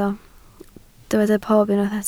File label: "pob", Welsh